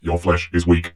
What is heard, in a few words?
cheavy provoke, vox; Dystopia; vox new